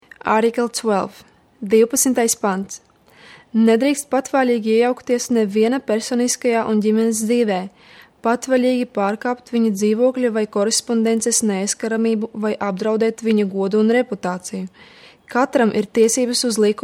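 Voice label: Latvian female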